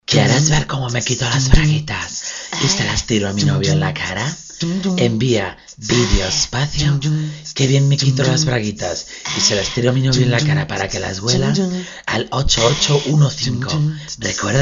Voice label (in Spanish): Publicidad; Braguitas